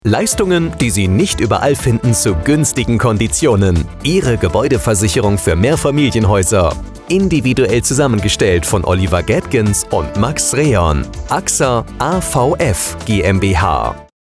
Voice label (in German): Funkspot; AVF GmbH